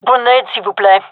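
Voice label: request grenade, gendarmerie female; vo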